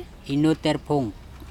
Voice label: "inotErpHong", andamanese; well